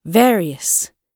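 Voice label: "various", British English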